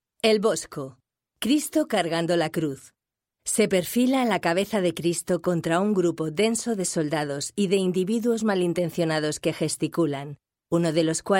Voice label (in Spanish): audioguia museos